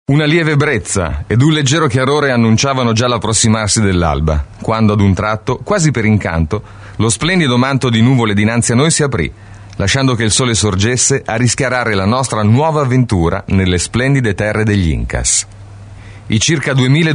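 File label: Italian, male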